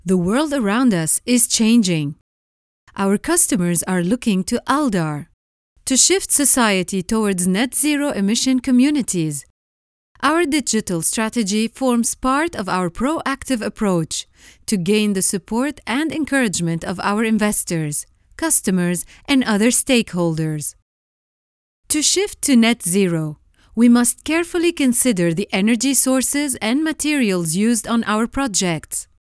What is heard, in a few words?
english narration